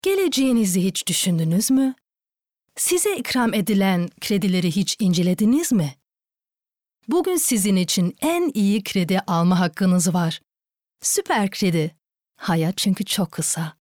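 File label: tuerkisch, Turkish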